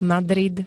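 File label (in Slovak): "Madrid", Slovenské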